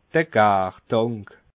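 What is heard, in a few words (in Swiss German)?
"gartank", Alsatian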